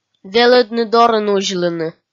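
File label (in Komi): "велӧдны дорын узьлыны", Komi, female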